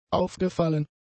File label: "aufgefallen", German; adjectives